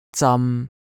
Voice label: "zam1", cantonese